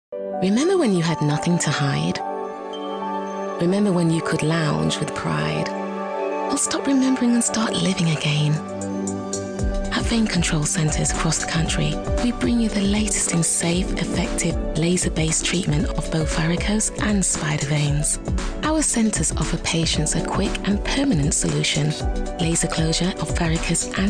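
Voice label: British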